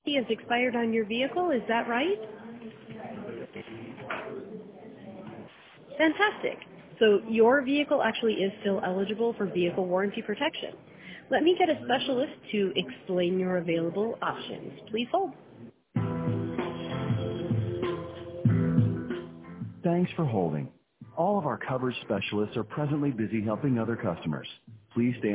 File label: voicemail auto automated